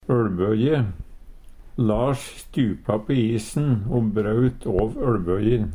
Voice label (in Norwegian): "øLbøje", Numedalsmål; Lars stupa på isen o braut åv øLbøjin.; Kropp, helse, slekt (mennesket)